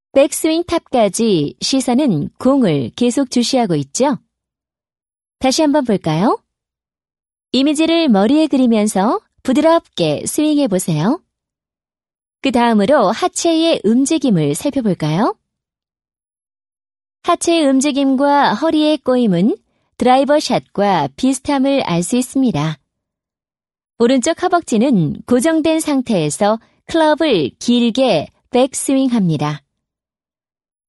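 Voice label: Korean, female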